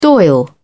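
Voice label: "dail", British English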